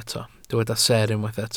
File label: "seer", Welsh